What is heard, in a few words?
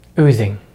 "oozing", English; Vowels in American English; easypronunciation